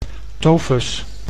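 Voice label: "tofus", Dutch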